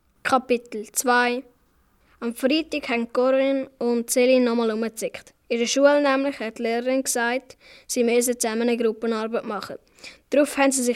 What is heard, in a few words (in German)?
Hoerspiel Zickenkrieg; Minutengeschichten